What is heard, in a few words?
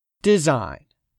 "DESIGN", english; 3000 words